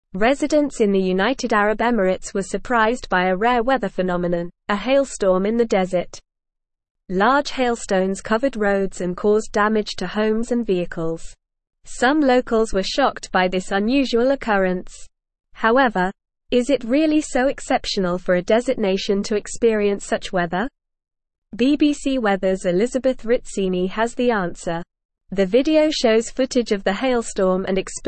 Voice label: English Advanced NORMAL Reading; Rare hailstorm surprises UAE residents causes damage